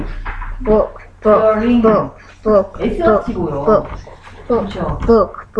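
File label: "book", spoken word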